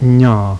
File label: palatal n